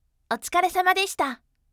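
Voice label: voice otsukaresamadeshita bright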